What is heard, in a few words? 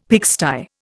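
"pigsty", Indian English; Dictionary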